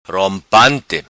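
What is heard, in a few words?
"Rompante", patua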